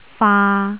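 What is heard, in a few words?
"faa3", Cantonese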